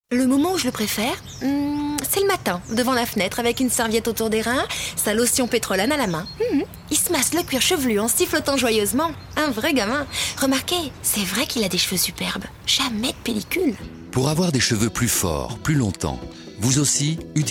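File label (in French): interview nature, comédienne voix off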